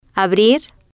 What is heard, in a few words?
"abrir", spanish